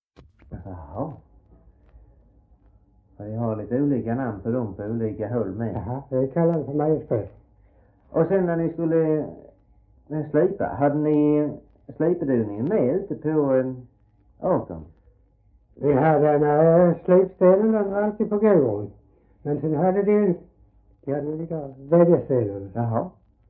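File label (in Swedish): Röstånga Skåne, dialekter svenska sagor; Folkminnen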